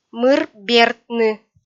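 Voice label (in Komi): "мыр бертны", Komi, female; kpv audio dict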